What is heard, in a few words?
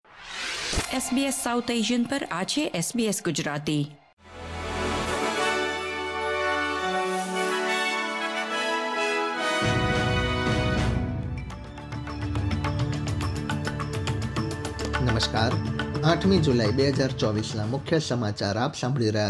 gujarati news bulletin; sbs